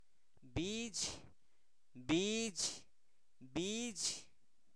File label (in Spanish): rana, Zapoteco, santo domingo albarradas; animales; INALI